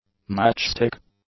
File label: "matchstick", English; nouns